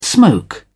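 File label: "smoke", British English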